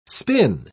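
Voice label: "spin", English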